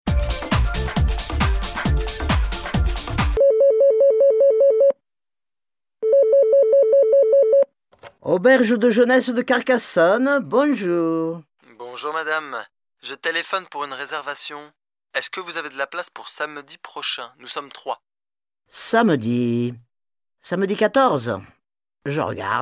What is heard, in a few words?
French